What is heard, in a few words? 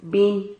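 "bim", Spanish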